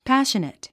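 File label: "passionate", English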